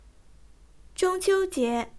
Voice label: "zhong1qiu1jie2", zhongwen